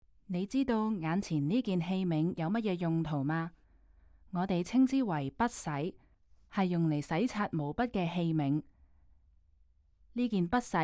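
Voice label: Cantonese, audio guide; g3-clay-to-treasure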